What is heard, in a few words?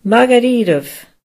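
awesome, Finnish; gcompris-data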